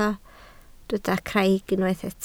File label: "craig", Welsh